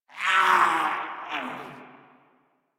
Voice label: Death, Battle, Barks, en; Warframe; Grineer Wolf Gangster